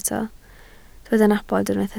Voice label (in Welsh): "nabod", Welsh